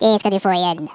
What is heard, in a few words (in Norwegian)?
"ill get you", Norwegian; Speech; 11khz